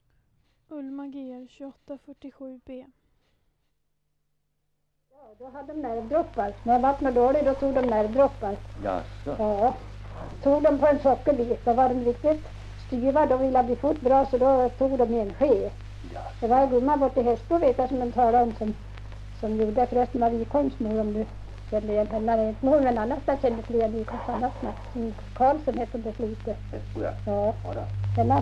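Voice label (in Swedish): Torsåker Gästrikland, dialekter, svenska sagor; Folkminnen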